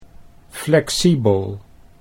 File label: "flexibel", Dutch